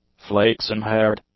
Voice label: "flaxen-haired", english